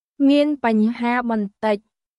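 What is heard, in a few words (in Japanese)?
クメール語（カンボジア語）の日常会話基本フレーズ; クメール語は、カンボジア王国の公用語で、独特のやわらかい響きが特徴です。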